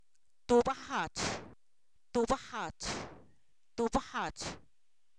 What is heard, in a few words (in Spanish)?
"tepache", Zapoteco; alimentos